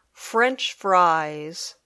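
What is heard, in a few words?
"french-fries", English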